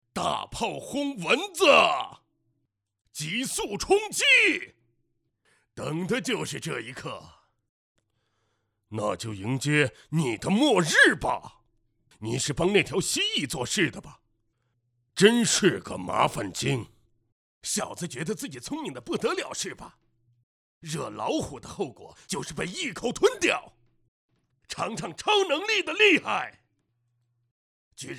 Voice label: 男 角色 游戏人物配音; 无主之地3

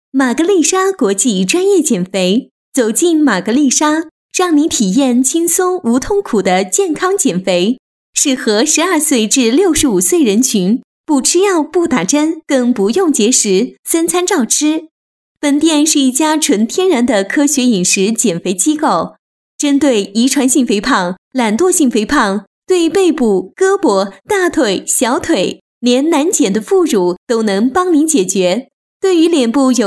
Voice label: 女 减肥 亲切广告; 玛格丽莎减肥

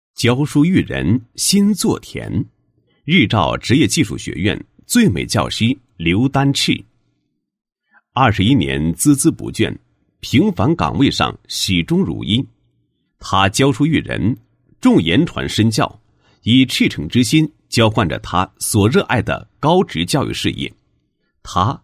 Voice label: 男, 人物介绍; 日照职业技术学院 最美教师

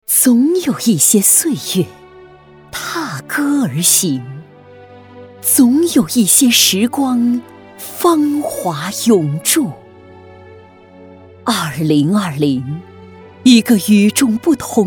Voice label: 女 朗诵; 春潮涌动

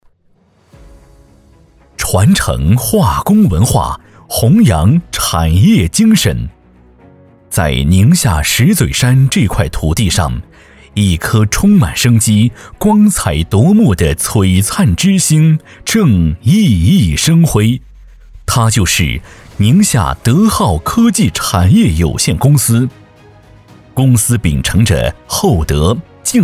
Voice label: 男 政府汇报片; 宁夏石嘴山

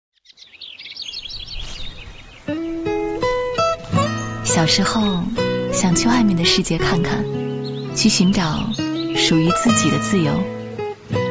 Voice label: 女 旁白; 森与海

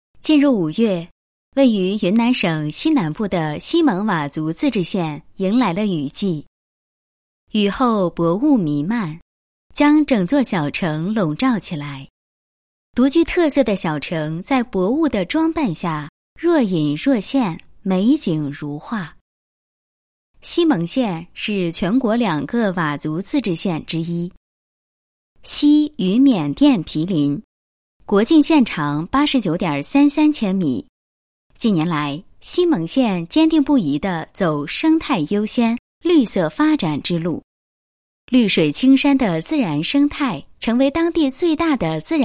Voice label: 女 专题; 西盟县

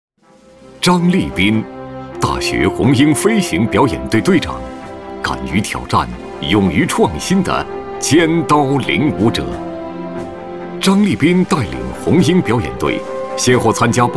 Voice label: 男 颁奖 军队党员先进人物

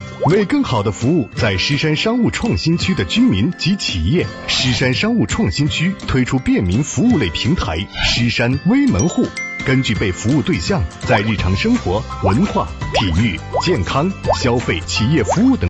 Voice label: 男 科技感动画; 狮山APP

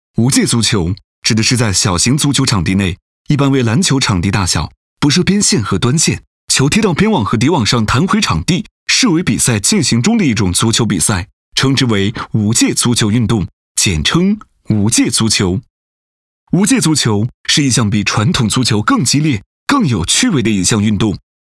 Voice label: 男 足球运动解说

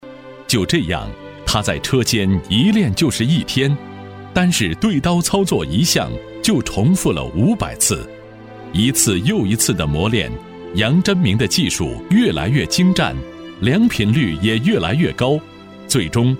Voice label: 男, 纪录片, 人物

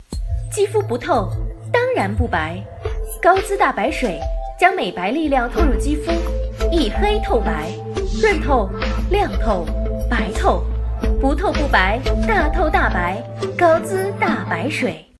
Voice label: 中文广告; 高姿大白水

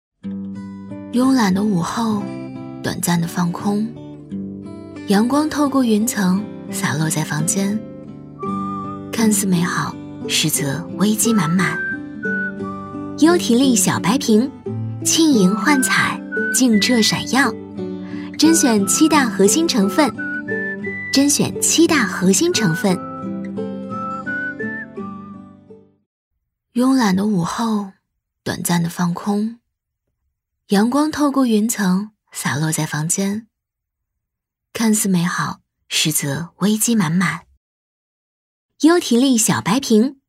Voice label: 女 广告 化妆品保健品 甜美青春; 优缇利; 美白饮

